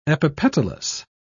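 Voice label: "epipetalous", British English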